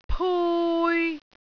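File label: "pui3", Cantonese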